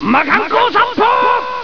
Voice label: exclamation; dragon ball z